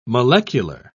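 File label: "molecular", British English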